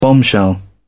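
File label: "bombshell", British English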